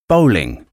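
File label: "bowling", English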